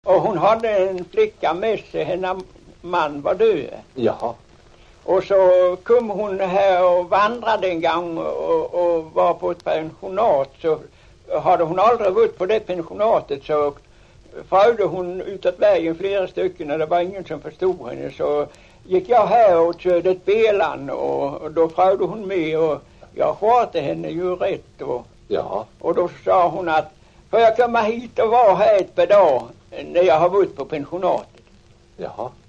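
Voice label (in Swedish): Ångelstad Småland, dialekter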